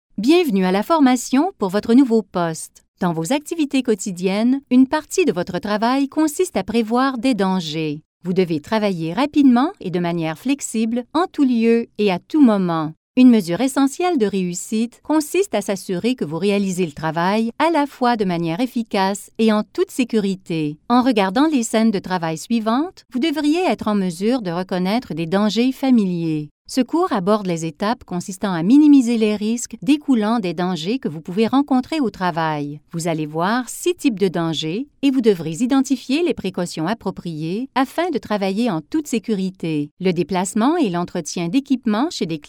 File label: Canadian French